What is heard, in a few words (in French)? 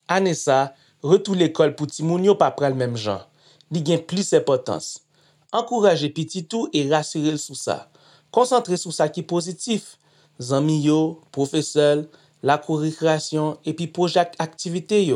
Message Créole Haitien